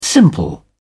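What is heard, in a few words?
"simple", British English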